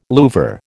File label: "louvre", American